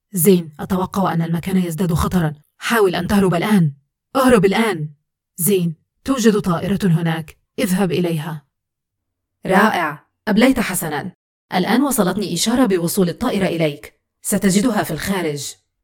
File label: Sci-fi Danger alert; Gaming